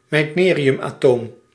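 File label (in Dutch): "meitneriumatoom", Dutch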